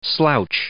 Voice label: "slouch", English